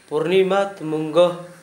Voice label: full moon night, Gta, Dialect: Hill; purnima tmuŋgo

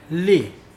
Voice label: "female", Mokilese

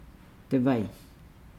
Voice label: "de vai", Nukuoro; pond

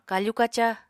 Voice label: "kafu-kaca", Apatani

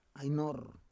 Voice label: "ai nor", Roon

